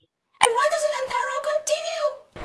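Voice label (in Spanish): Voice Acting, Spanish, female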